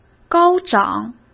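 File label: "高涨", Mandarin Chinese; chinese-flashcards; hsk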